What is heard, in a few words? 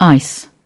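"ice", British English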